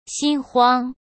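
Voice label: "心慌", Mandarin Chinese; chinese flashcards; hsk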